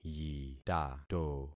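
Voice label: "yitato", concatenated syllables; Affixing experiment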